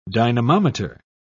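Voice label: "dynamometer", British English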